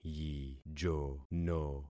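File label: "yijono", concatenated syllables; Affixing experiment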